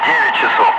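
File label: Russian speech, Ka-50 Wingman; DCS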